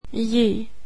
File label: "j", swedish alphabet